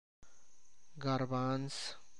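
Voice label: chickpea garbanzo, Tlacochahuaya Zapotec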